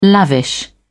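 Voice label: "lavish", British English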